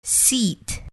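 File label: "seat", English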